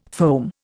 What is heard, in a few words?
"foam", Indian